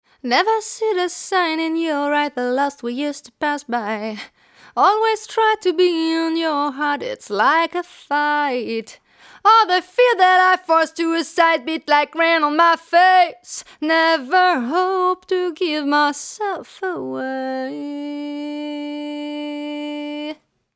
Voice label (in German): se electronics se2000, mikrofon test